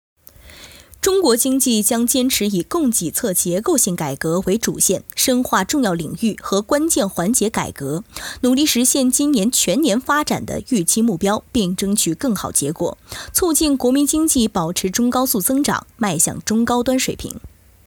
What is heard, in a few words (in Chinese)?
新闻